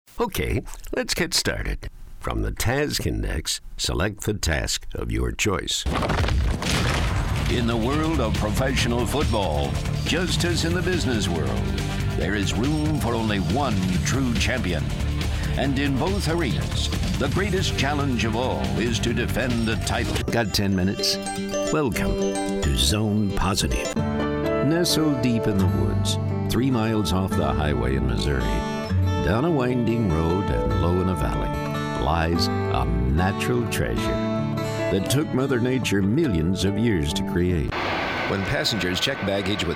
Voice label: Narration Male; commercial voiceover